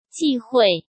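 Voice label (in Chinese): "忌讳", Mandarin Chinese; hsk; chinese-flashcards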